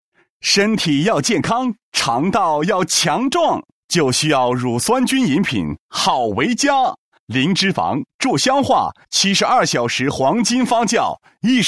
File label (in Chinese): 品牌广告, 国语男声配音, 男高音; 好唯嘉